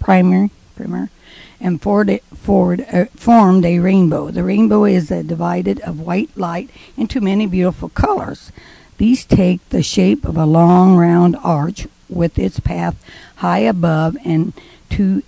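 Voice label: indiana, International Dialects of English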